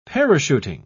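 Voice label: "parachuting", British English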